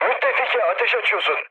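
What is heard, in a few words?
Turkish player friendly fire, mood med, speech; War Thunder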